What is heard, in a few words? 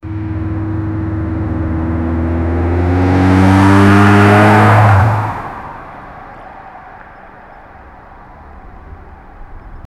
immediately pull over to, alert